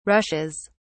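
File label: "rushes", British English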